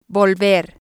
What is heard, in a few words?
"volver", Spanish